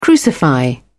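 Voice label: "crucify", British English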